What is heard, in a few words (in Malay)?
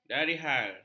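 "darihal", Malay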